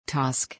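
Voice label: "task", English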